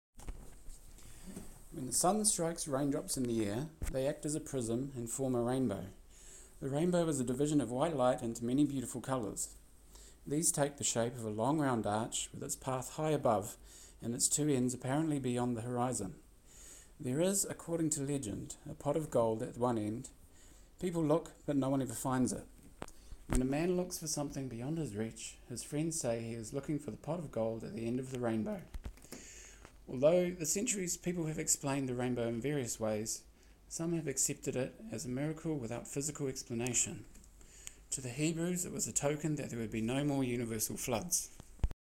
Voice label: lower voice